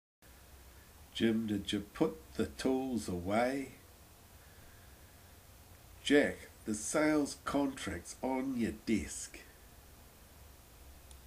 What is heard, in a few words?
frustration